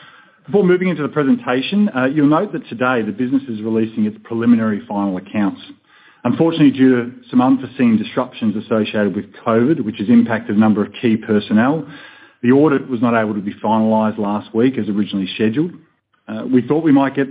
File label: Full Year Results Conference Call; Service Stream